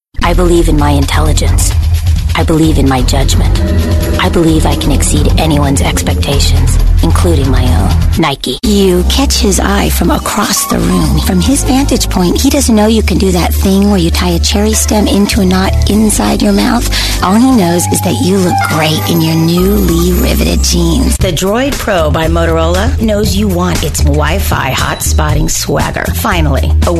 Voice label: Women Commercial